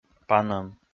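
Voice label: "панӧм", Komi, male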